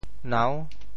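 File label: "nau6", Teochew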